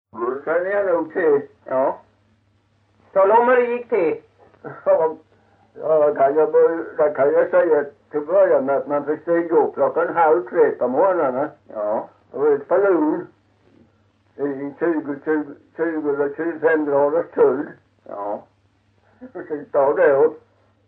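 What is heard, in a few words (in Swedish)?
Kyrkhult Blekinge, dialekter; Folkminnen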